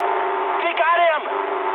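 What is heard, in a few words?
aircraft destroyed mood high, speech English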